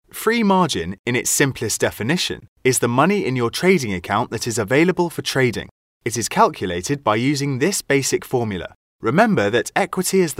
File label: English British training e-learning